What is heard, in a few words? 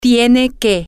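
Spanish, female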